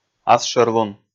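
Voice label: "асшӧрлун", Komi, male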